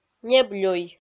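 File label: "неблюй", Komi, female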